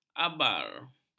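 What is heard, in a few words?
"ambar", Malay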